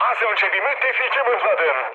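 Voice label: Turkish player killed ally, mood high, speech; War Thunder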